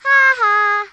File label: missed, Speech Kidz; Worms Armageddon